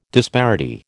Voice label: "disparity", American

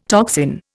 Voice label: "toxin", Indian

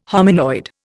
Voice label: "hominoid", Indian

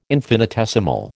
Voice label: "infinitesimal", American

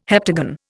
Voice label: "heptagon", Indian

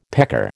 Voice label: "pecker", American

